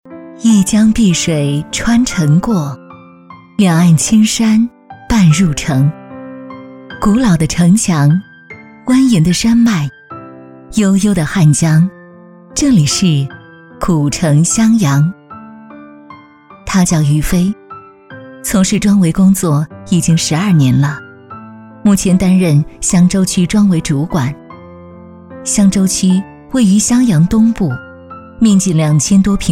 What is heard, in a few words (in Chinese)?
女 专题